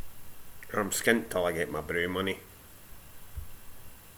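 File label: "broo money", Scottish slang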